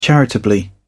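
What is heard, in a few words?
"charitably", British English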